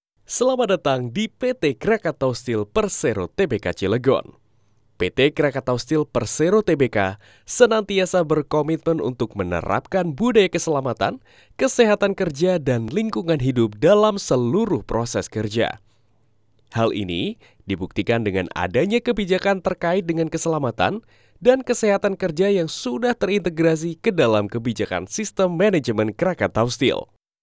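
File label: Indonesian Bahasa, male, Voicereel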